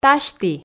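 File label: "тасьті", Komi, female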